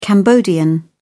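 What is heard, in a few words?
"cambodian", British English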